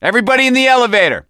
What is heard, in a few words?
misc directional, voice; gambler